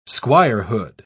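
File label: "squirehood", British English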